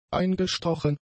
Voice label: "eingestochen", German; adjectives

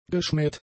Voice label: "geschmäht", German; adjectives

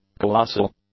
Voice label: "colossal", English; adjectives

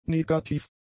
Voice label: "negativ", German; adjectives

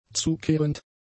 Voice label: "zukehrend", German; adjectives

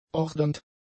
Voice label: "ordernd", German; adjectives

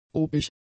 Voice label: "obig", German; adjectives

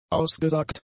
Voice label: "ausgesagt", German; adjectives

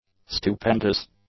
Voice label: "stupendous", English; adjectives

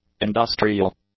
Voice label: "industrial", English; adjectives

